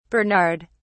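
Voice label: "bernard", British English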